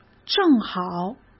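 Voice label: "正好", Mandarin Chinese; chinese flashcards; hsk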